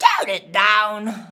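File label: "turn it down", shouts; Best Service; Hyper Dance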